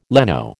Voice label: "leno", American

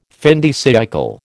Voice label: "fin de siÊcle", American

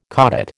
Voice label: "caudate", American

